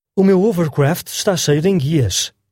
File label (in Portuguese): hovercraft portugues pt